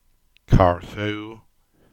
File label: "Carthew" EN